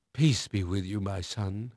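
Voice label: English male; Pirates Of The Caribbean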